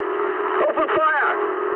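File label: English attack position mood med; War Thunder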